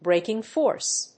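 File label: "Braking force", English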